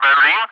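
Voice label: "Berlin", English, ATC callsign; DCS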